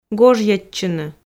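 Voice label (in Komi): "гожйӧдчыны", Komi-Zyrian, female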